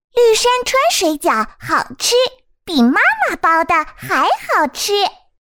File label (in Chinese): 女 广告 食品饮料 水饺童声广告; 绿山川